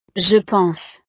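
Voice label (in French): "je pense", French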